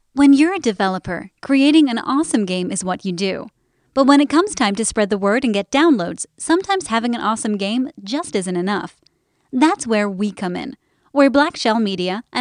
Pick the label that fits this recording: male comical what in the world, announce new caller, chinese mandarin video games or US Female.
US Female